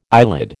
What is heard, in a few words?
"eyelid", American